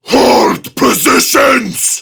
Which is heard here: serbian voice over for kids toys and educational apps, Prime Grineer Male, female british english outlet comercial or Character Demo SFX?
Prime Grineer Male